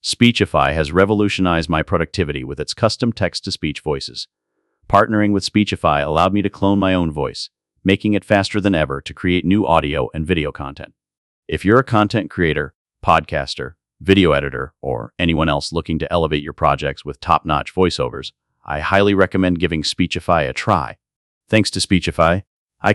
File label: cloned voice VO Promo; speechify